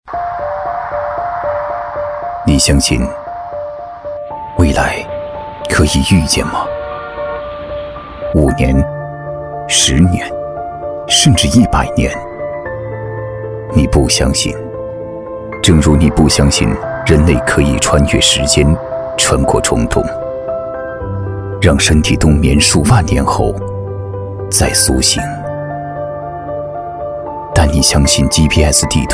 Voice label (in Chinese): 男,内心独白; 你相信